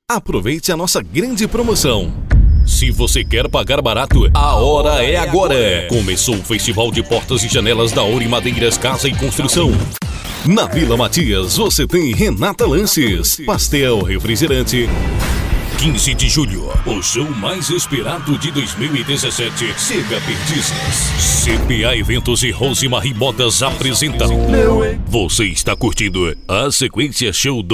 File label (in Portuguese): demo locucao brasil, banco de vozes